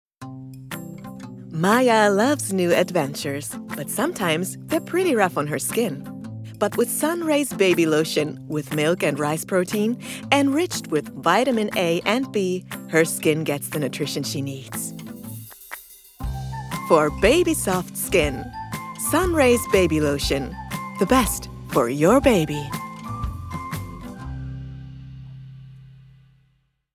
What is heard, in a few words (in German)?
Werbung Babylotion englisch